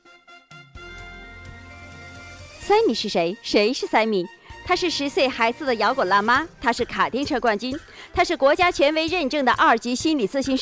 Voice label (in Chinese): 宣传